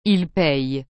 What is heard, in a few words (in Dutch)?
"il paye", frans; payer